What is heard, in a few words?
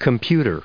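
"computers", US English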